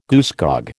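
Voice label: "goosegog", American English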